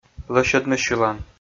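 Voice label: "лӧсьӧдны сьылан", Komi, male; kpv audio dict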